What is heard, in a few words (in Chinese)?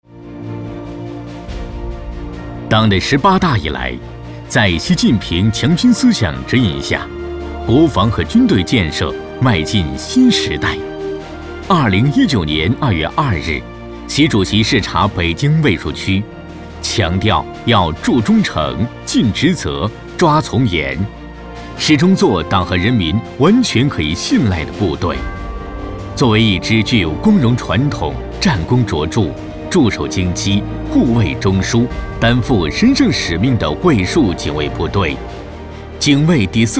男 汇报; 迎外劲旅